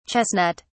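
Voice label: "chestnut (s)", British English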